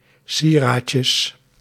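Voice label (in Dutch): "syrahtjes", Dutch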